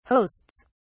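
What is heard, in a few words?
"hosts", British English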